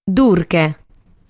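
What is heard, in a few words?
"Durche", Sardinian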